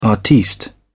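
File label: "artiste", English, British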